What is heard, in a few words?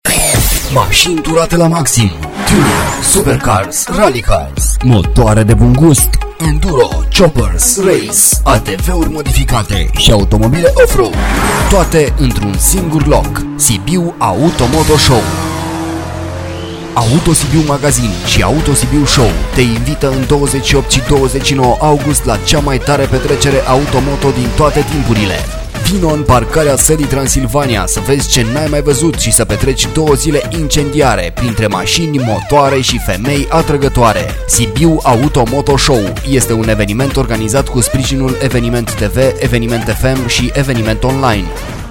spot radio; circuit; amatori